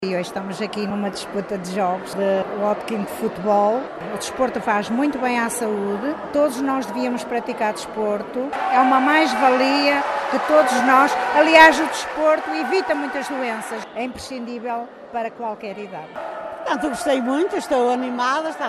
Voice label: vox pop